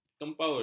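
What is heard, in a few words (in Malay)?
"kempul", Malay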